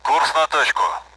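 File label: Speech Sound Russian Common AWACS Messages; home plate; DCS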